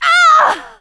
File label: hurt major, survivor voice; producer